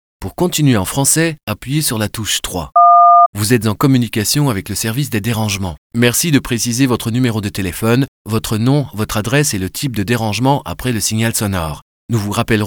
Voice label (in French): IVR, voix française; echantillons divers